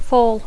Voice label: "fall", English

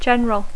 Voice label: "general", English

